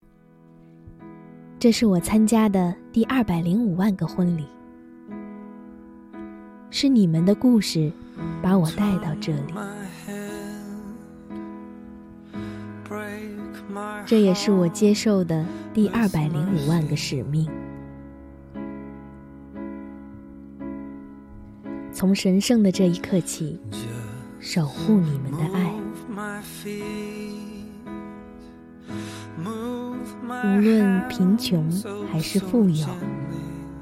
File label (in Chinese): 商配音展温柔